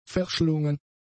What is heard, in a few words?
"verschlungen", German; adjectives